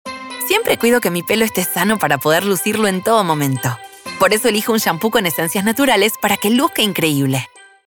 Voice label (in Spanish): Joven Dulce Young Sweet AR